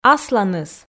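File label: "асланыс", Komi, female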